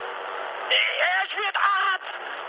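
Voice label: German aircraft destroyed mood high, speech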